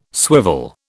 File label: "swivel", British